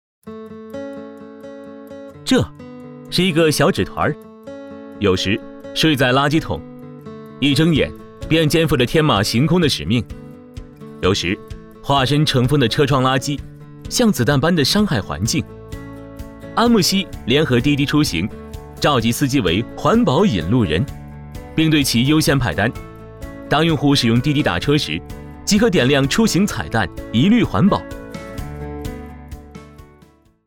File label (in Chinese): 男 年轻 科技; 安慕希-滴滴